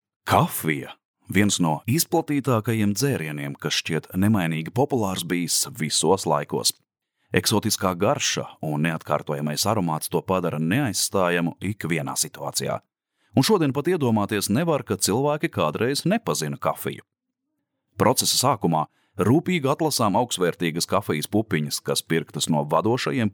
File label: male latvian voice over talent upbeat